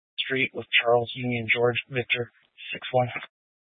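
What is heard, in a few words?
Incident Tac 3, Scanner audio recording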